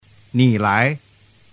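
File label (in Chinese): ni lai, Chinese; doi dau giong; giao trinh 301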